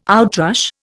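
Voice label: "outrush", Indian English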